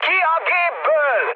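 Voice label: Hungarian bailout mood high, speech; War Thunder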